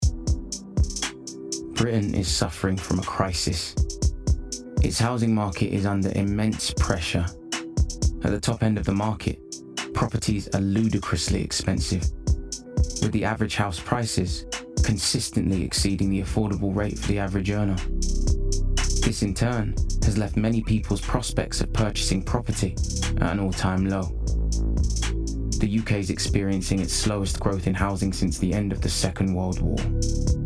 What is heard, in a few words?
Documentary Reel; United Voices